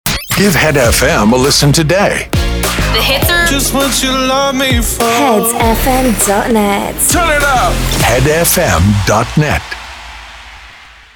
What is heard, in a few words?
Sweeper Station ID